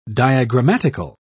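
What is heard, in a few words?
"diagrammatical", British English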